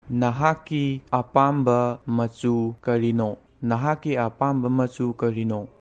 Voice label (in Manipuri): "Nahakki apamba machu karino?", Manipuri; Which is your favourite colour?